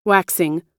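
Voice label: "waxing", US English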